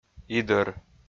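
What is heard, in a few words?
"идӧр", Komi, male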